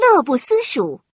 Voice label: indulgence, male; FreeKill; standard cards

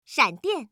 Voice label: "shandian", female

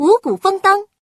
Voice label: amazing grace, female; FreeKill; standard cards

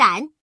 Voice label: jink, female; FreeKill; standard cards

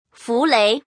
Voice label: female; fulei

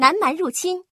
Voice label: savage assault, female; FreeKill; standard cards

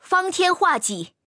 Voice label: "fangtian", female

